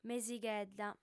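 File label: "mesighedda", female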